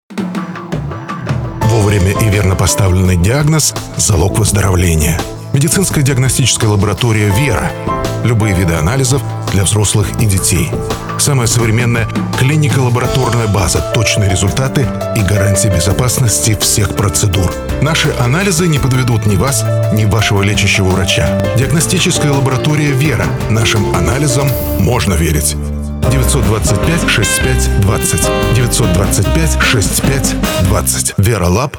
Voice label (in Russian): reklama; veralab